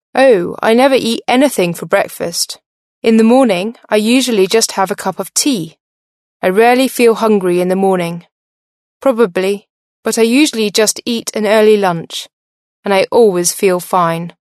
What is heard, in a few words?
british female